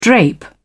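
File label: "drape", British English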